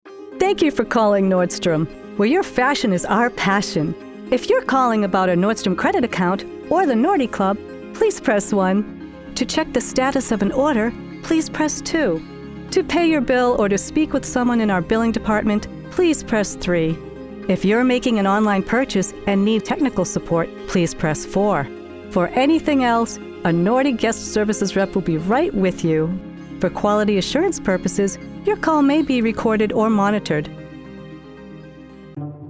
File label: Telephony